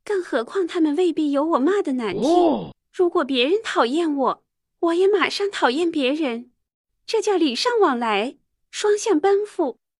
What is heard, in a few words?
Viral Ad Voice